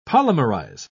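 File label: "polymerize", British English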